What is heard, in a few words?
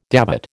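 "dévot", American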